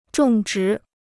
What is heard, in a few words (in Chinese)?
"种植", Chinese